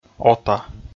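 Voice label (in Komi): "ота", Komi, male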